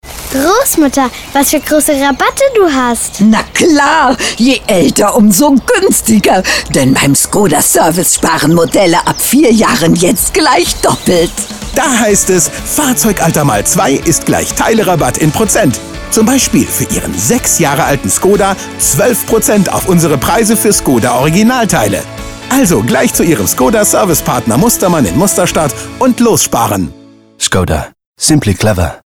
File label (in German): tv-spot; Kindersprecher; skoda